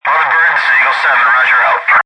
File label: Military Radio Communication Short Wave Radio Walkie Talkie; Radio Dispatch; Lynne Music